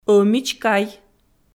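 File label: "ӧмидзкай", Komi, female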